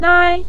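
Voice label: "nai" neutral tone, Mandarin Chinese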